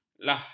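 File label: "lah", Malay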